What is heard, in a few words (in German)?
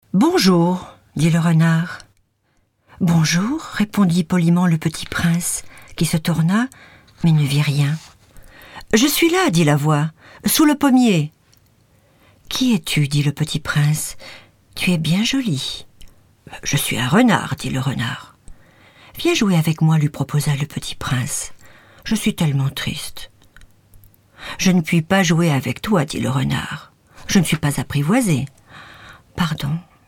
Französischer Kommentar